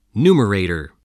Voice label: "numerator", voice, spelling